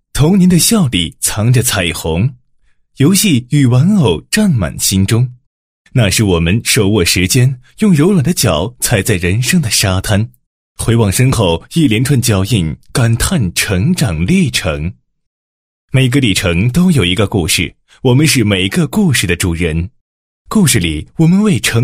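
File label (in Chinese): 男 人物 游戏玩家事迹; 我是玩家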